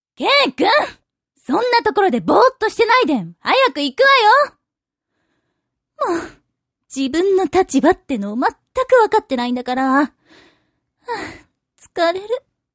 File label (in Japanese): zyosei